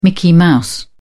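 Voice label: "mickey mouse", British English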